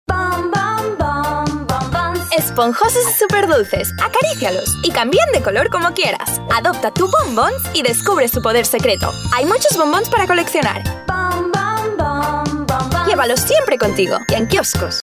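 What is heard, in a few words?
Spanish female TV commercial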